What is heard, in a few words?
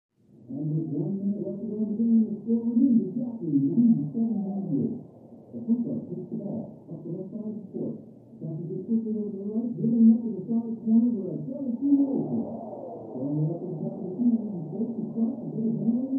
Television; Basketball Game With Announcer, Crowd And Players. Through Thick Wall.; sports